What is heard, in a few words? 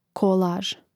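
"kolaž", Croatian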